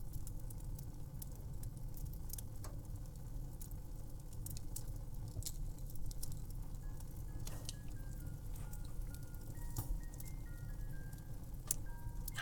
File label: F4M kissing oral sloppy sfx cozy; Day1- Peppermint Icing; holidays; punny; GFE; hot coco; wet